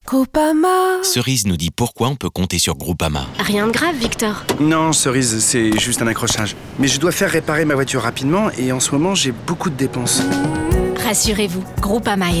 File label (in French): radio auto garages partenaires; groupama